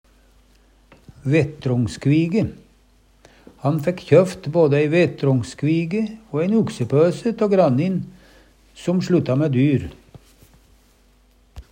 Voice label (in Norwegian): "vetrongskvige", Numedalsmål; Han fekk kjøft både ei vetrongskvige o ein uksepøse tå grannin som slutta